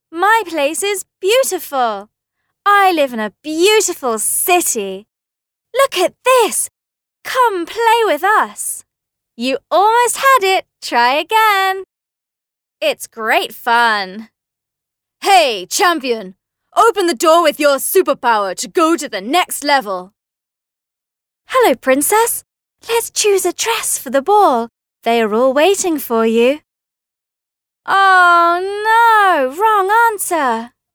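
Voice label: British English female children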